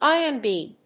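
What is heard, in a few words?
"ION BEAM", English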